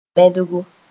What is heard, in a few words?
"bẽdgo", Mooré